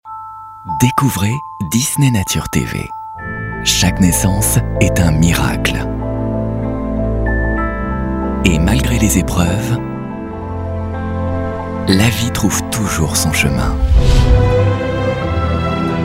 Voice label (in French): emouvant sensible, voix off publicité; DISNEY NATURE